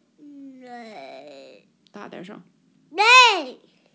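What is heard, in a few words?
"nei4", Mandarin Chinese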